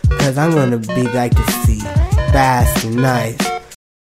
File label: fast and nice